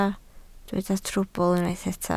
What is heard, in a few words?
"trwbl", Welsh